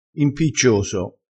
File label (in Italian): "impiccioso", crespinese, vocabolario dialettale